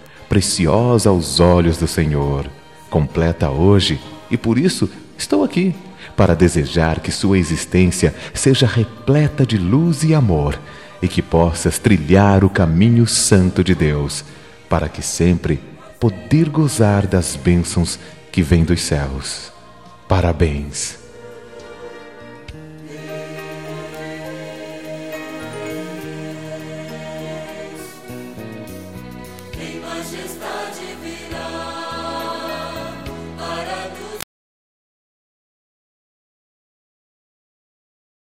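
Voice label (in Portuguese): Evangélica Aniversário de Amizade, male, telemensagens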